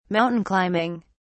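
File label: "mountain climbing", British English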